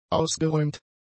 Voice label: "ausgeräumt", German; adjectives